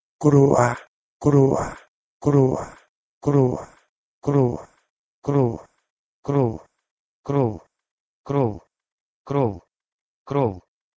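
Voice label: Proto-Indo-European krouh2 to Ukrainian krov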